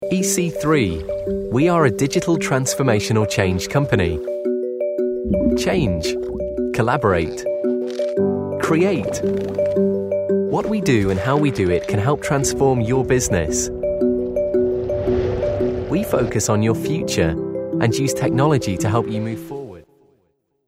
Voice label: Down to Earth, voiceover